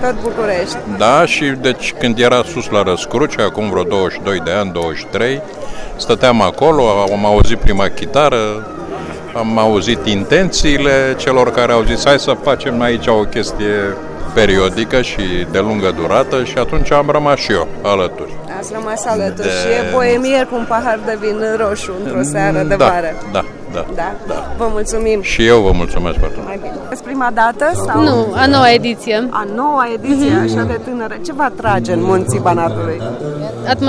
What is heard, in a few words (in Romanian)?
Voci spectatori JAZZ; GARANA